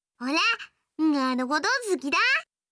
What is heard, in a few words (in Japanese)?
好きです 岩手; 亜美